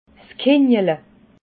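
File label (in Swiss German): "kinggele", Alsatian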